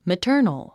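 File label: "maternal", English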